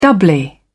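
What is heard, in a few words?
"doubly", British English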